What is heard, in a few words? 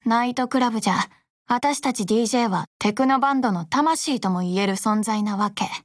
VO DIALOGUE